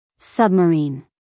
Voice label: "submarine", US English, female; everyone-can-use-english

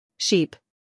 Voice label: "sheep", en us female

